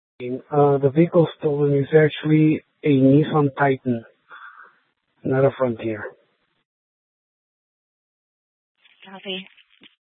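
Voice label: police department Dispatch, scanner; TOPAZ Regional Wireless Cooperative (TRWC) - Mesa Simulcast; Mesa PD; Fiesta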